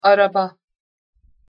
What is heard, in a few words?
"araba", Turkish